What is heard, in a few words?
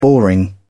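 "boring", British English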